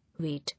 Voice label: "Wait", English